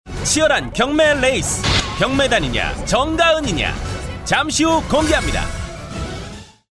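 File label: Korean, male, commercial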